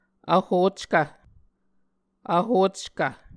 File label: "vhockv", Muscogee